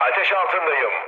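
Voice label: Turkish on fire, mood low, speech; War Thunder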